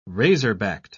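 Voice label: "razor-backed", British English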